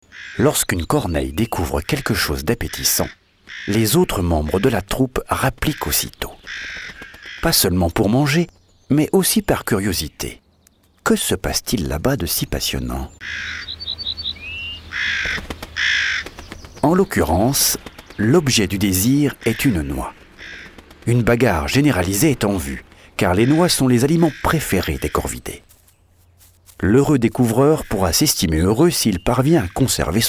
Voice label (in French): DOCU Corbeaux et Corneilles Conteur Malicieux; ARTE